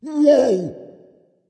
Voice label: fear dragon; fafnir